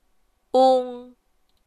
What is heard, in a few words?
"ung", rhymes; 拼音